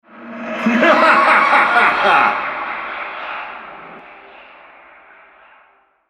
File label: Altar Capture, Male